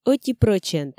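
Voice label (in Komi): ӧти прӧчент, Komi, female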